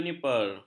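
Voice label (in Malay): "juniper", Malay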